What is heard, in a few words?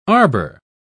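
"arbor", British English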